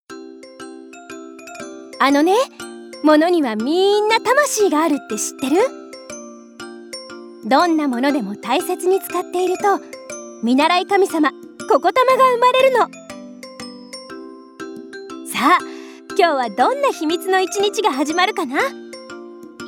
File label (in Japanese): ナレーション